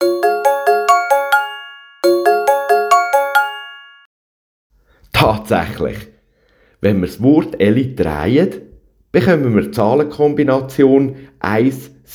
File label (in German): Abschluss mit Jingle